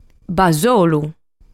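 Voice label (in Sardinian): "Basolu", Sardinian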